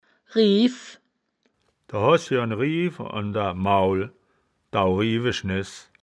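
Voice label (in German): "riew", wittlicher woerterbuch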